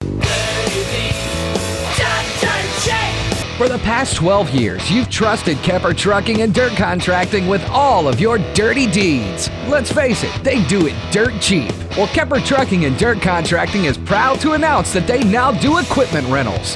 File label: RADIO AD; KEPPER TRUCKING AND DIRT CONTRACTING